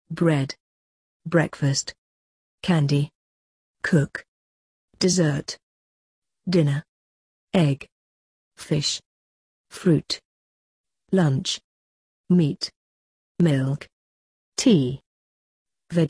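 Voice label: food drinks, British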